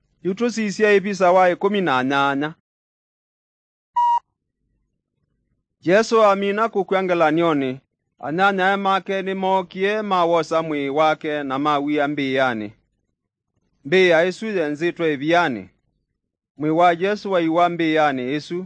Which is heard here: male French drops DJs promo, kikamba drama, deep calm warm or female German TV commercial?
kikamba drama